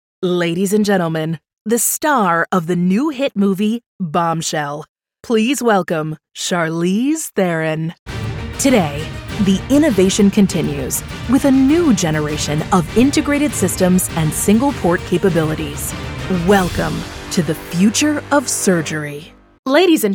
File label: Live Announce